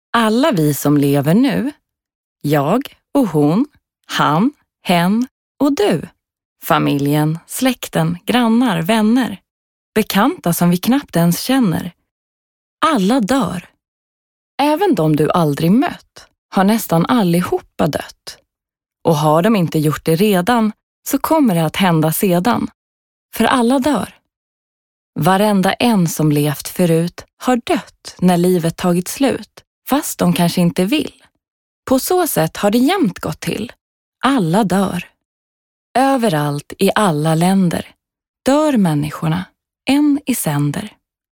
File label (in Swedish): se f ljudbok barn